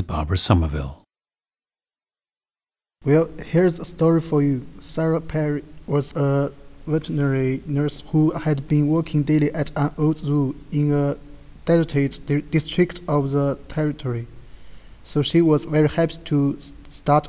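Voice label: shandong, International Dialects of English Archive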